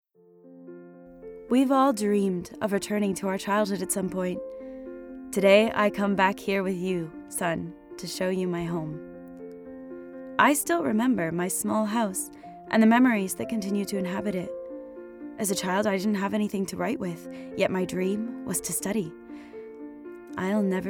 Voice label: female american english brand comercial; ideasonora

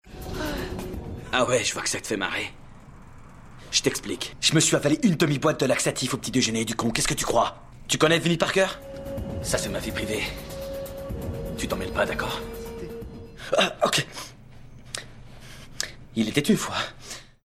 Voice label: male french actor comercial; ideasonora

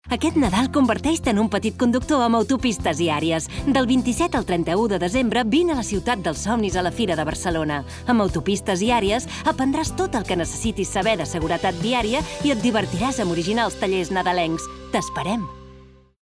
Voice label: female catalan city event comercial; ideasonora

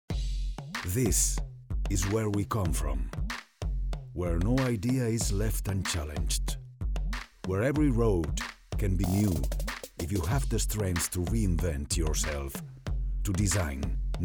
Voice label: male non-native english manifesto comercial; ideasonora

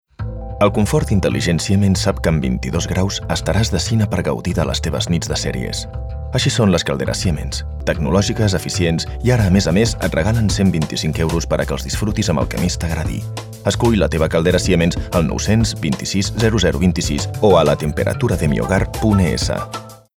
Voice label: male catalan ac comercial; ideasonora

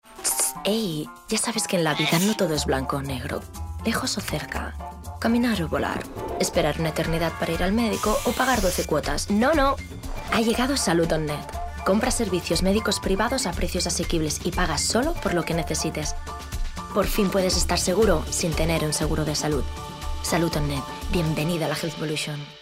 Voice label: female spanish medical insurance comercial

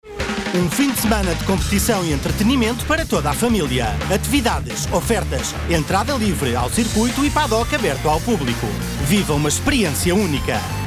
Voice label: male portuguese comercial; ideasonora

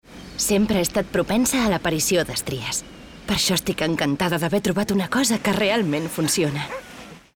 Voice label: female catalan testimonial comercial; ideasonora

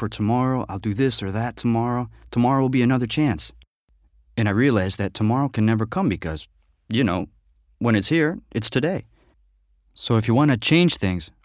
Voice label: male american english think comercial; ideasonora

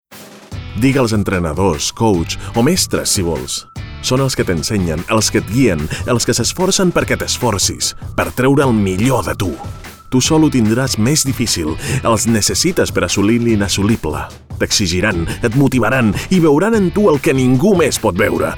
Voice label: male catalan tv comercial; ideasonora

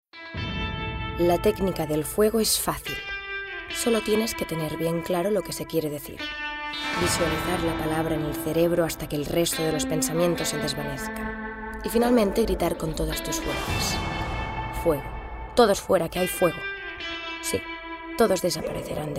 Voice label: female spanish comercial; wallmart; ideasonora

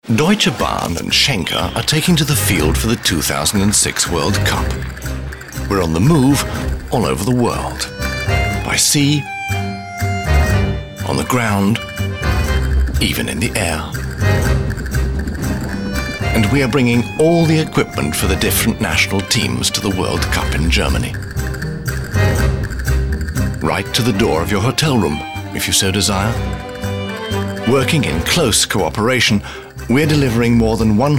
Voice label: male british english commercial; ideasonora

